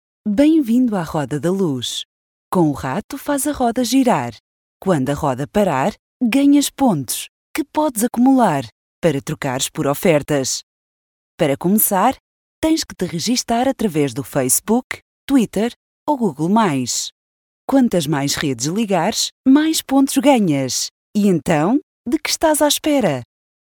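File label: European Portuguese, female; character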